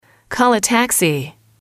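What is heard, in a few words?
"call a taxi", English, female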